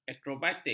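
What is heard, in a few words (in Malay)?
"aerobatik", Malay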